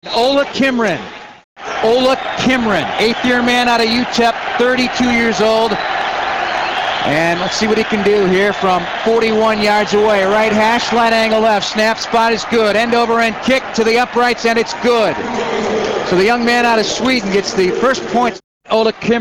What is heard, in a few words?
English